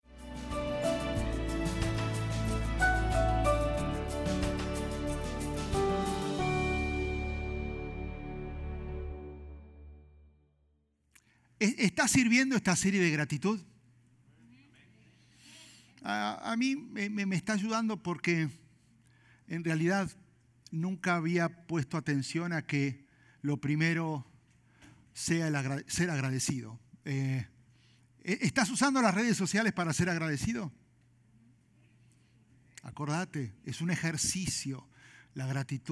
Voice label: SPAN SERMON